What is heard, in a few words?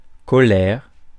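"colère", French; Mots francais phonemes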